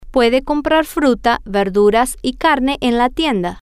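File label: he can buy fruit vegetables and meat a, spanish, female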